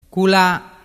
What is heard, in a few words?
"culla", dialettu